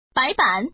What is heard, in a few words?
woman; mahjong ningxia